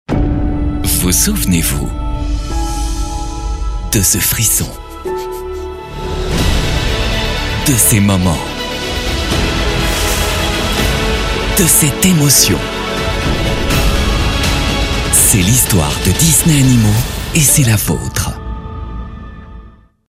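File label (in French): complice enthousiaste, voix off publicite, homme; DISNEY ANIMAUX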